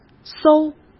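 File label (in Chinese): "艘", Mandarin; chinese flashcards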